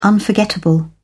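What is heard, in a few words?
"unforgettable", English, uk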